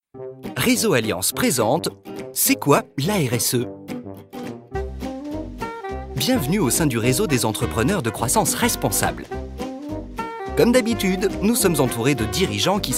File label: ANIMATED EXPLAINER VIDEO; Reseaux Alliances